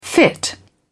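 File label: "fit in", British English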